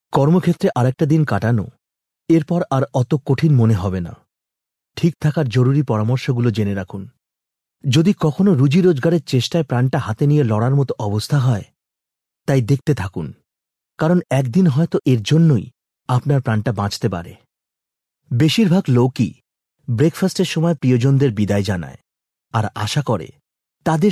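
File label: Bengali, male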